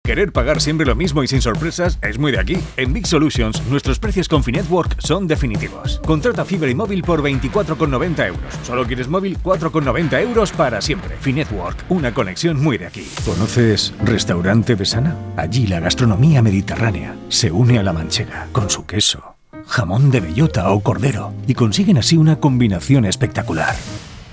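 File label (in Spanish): generico España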